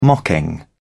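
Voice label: "mocking", British English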